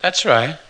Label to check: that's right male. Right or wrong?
Right